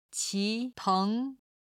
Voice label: "qi2 teng2", Chinese

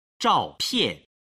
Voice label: "zhaopian", chinese

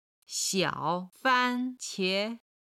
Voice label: "xiao3 fan1 qie2", Chinese

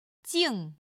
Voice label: "jing4", chinese

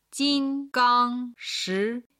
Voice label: "jingangshi", Chinese

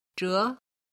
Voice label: "zhe", Chinese

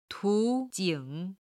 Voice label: "tu jing", chinese

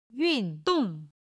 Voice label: "yun4 dong4", Chinese

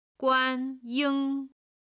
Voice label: "guan1ying1", Chinese

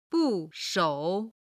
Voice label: "bu4shou3", chinese

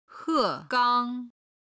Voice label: "he4 gang1", Chinese

